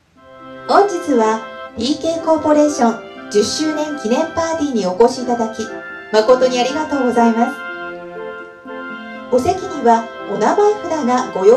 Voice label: voice english